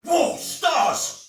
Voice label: See Ally Die, Soldier Male; Dialog; Barks; Battle; Sinecure